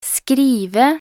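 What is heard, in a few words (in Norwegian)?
"skrive", Norwegian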